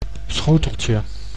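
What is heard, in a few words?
"schoteltje", Dutch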